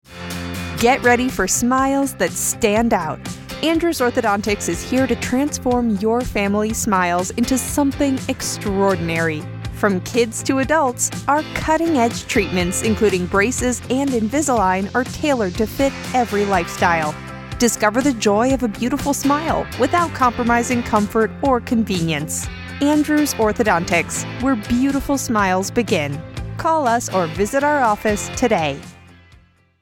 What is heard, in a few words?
radio ad